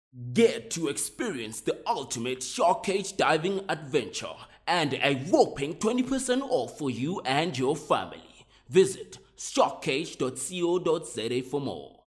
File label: English Medium Sell